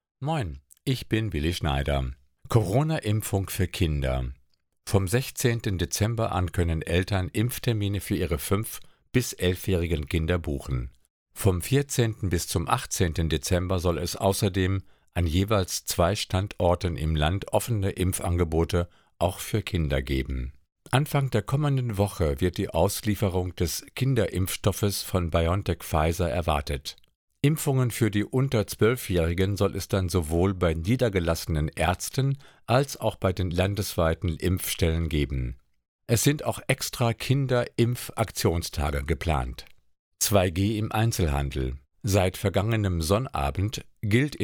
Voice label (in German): Lokalnachrichten für Radiosender; Inselradio Föhr